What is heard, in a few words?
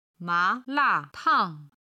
"ma2la4tang4", chinese